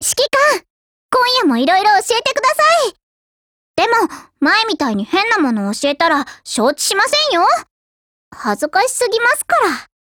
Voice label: DIALOGUE WEDDING JP; M99